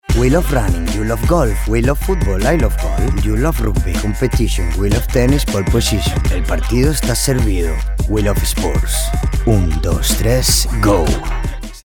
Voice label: male spanish sing comercial; ideasonora

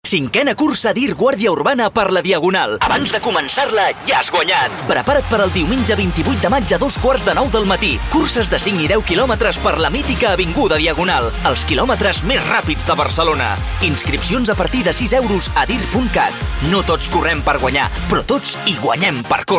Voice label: male catalan run comercial; ideasonora

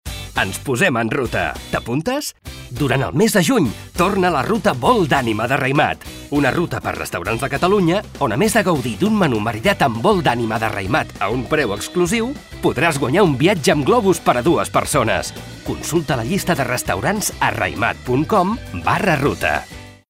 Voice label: male catalan wine comercial; ideasonora

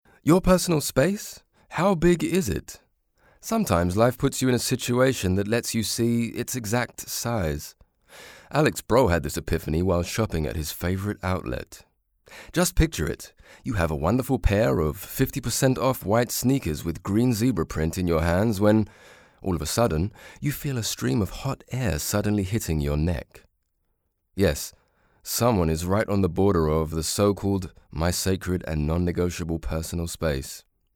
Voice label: male british english comercial; ideasonora

